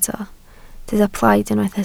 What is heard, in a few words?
"plaid", Welsh